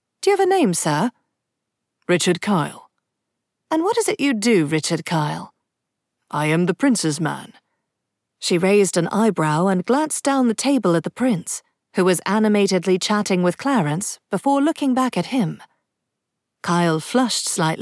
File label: British Accent; audiobook